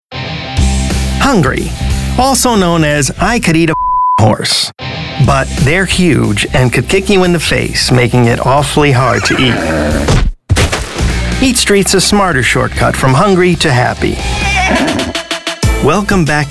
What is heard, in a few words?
Commercial